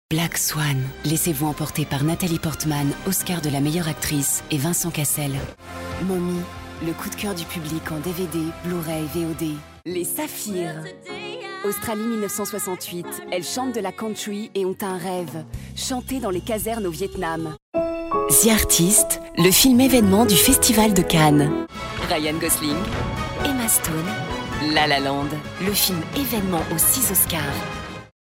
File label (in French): promo films